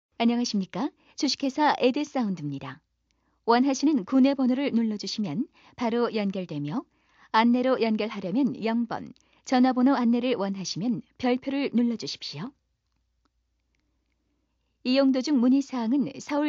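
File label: Korean female; ARS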